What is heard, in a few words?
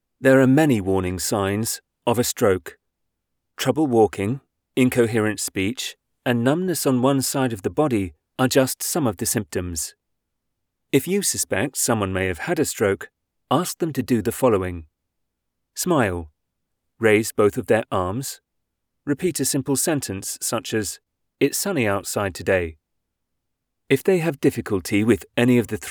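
UK, voiceover; Stroke Demo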